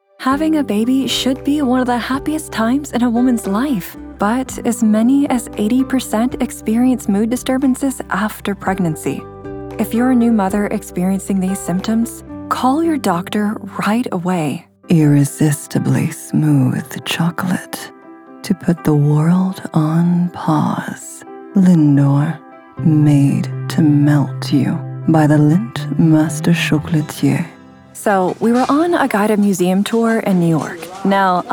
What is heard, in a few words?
Commercial Showreel; Female; English (North American)